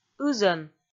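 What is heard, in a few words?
"ызӧн", Komi, female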